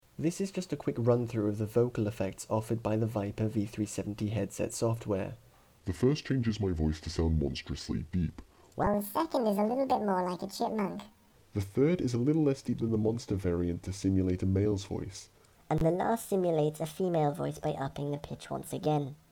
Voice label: Viper V370 Headset Microphone Effects; KitGuru